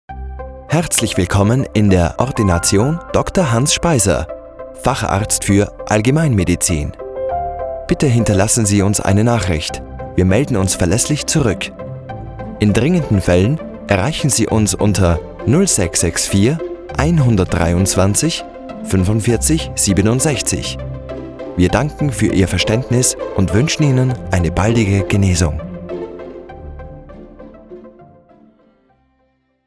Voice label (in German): Anrufbeantworter